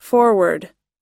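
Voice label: "foreword", English pronunciation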